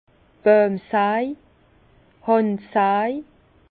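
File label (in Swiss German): "boemsaj", Alsace Bas Rhin; vin; scie à main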